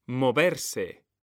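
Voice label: "moverse", Spanish, male; mekanta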